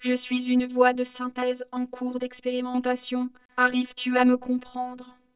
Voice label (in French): test MaryTTS femme